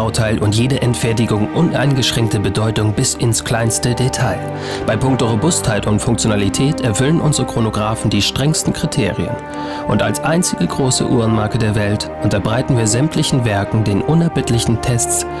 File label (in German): werbung schmeichler